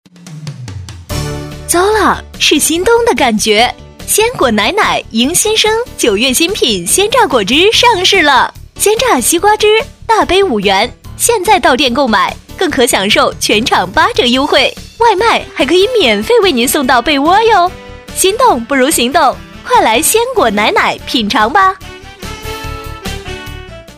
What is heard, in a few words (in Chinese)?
女 广告活泼; 鲜果奶奶